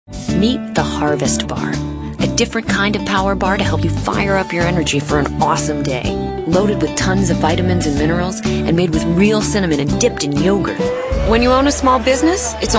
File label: female, late20s late30s clear